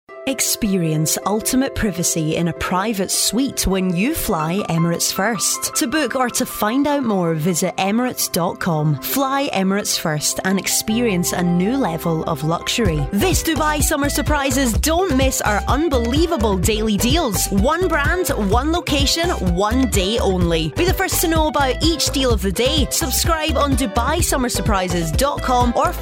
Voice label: Commercial